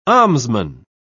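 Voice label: "almsman", British English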